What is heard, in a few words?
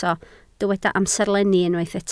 "amserlenni", Welsh